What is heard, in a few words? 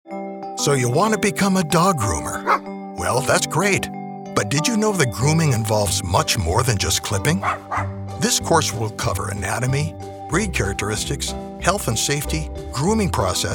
Narration